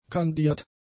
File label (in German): "kandiert", German; adjectives